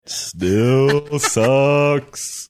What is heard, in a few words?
saying still sucks funny manner